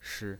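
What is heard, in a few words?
"shi", Mandarin Chinese pinyin, neutral tone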